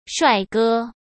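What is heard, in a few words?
"帅哥", Mandarin Chinese; chinese flashcards; hsk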